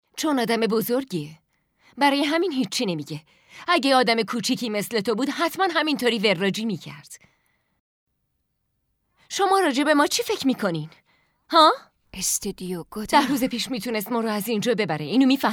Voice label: dub